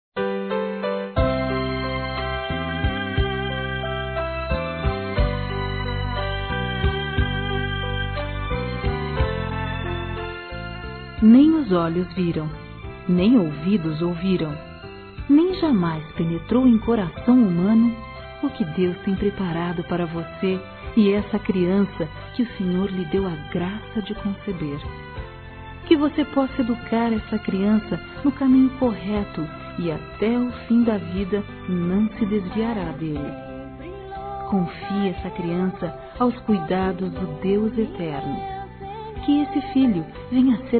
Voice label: A QUEM SE TORNOU MÃE, Gospel, fem; Telemensagens; Telemensagens 2017

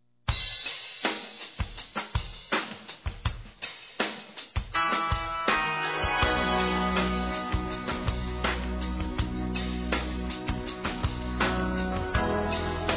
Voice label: Desejando Sucesso Masculino; Telemensagens; Telemensagens 2017

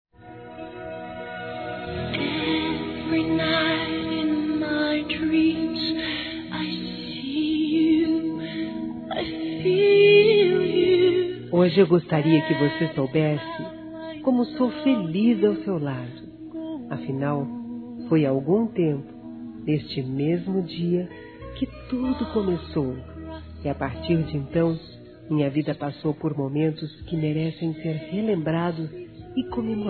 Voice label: ANIV. CASAMENTO NAMORO F; Aniversários; ANIVERSARIO romantico; fem

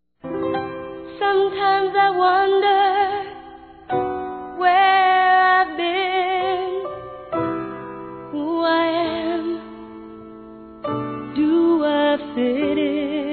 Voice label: Desculpas Filho (A) Femin; Telemensagens; Telemensagens 2017

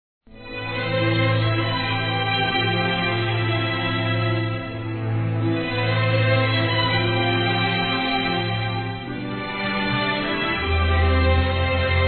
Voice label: Neutro Católico Feminino

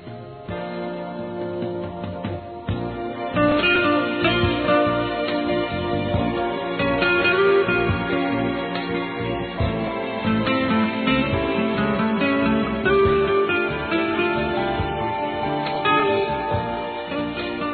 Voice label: PARAB. PROFISSIONAL, fem, Telemensagens Empresarial; Telemensagens 2017

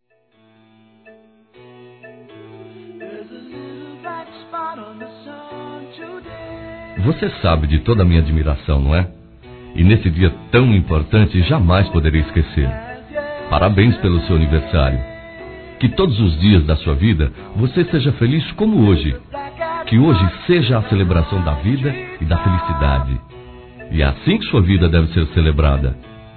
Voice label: Neutro Distante Masculino; Aniversários

